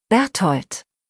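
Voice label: "Berthold", German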